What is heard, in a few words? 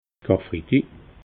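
"karfridi", Alsatian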